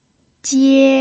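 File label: "jie", first tone, Mandarin Chinese pinyin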